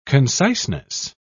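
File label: "conciseness", British English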